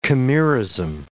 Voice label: "chimerism", English

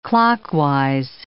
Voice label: "clockwise", English

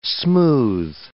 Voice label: "smooth", english

